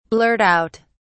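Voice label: "blurt out", British English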